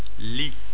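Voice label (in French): "lit", French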